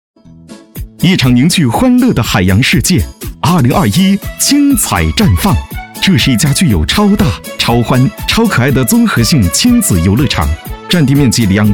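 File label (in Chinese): 男【喜庆促销】; 海洋世界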